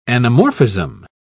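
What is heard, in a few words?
"anamorphism", British English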